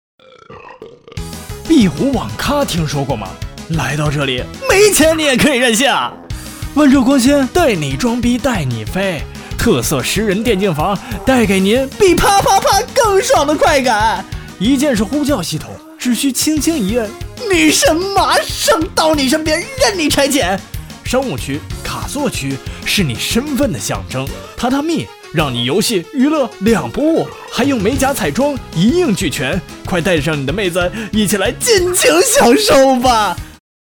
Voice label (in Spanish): Locutor varón chino